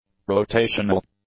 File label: "rotational", English; adjectives